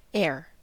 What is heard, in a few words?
"air", us